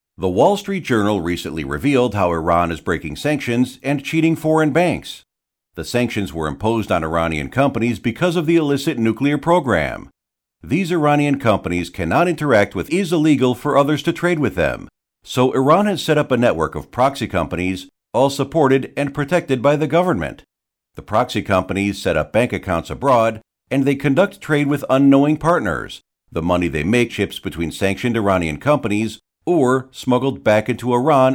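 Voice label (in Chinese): 外籍男声 美式英语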